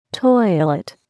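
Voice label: "toilet", English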